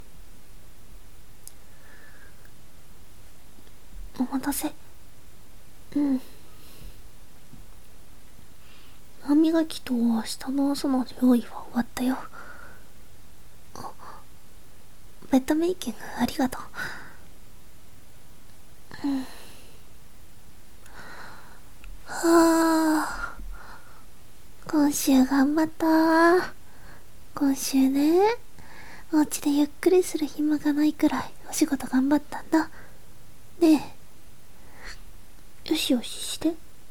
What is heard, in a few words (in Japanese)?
添い寝; 疲労とストレスが溜まった年下彼女のために元気チャージの添い寝